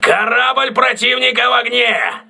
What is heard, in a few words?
voice message enemy on fire, crew ships russian